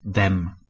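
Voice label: "dem2", Proto-Indo-European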